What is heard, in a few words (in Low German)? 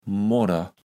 "Modder", Platt